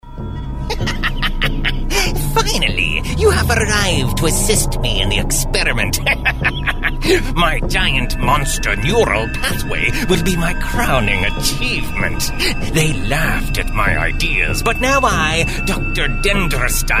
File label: MAD SCIENTIST voiceover